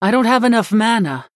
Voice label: Female Human ERROR NEED MANA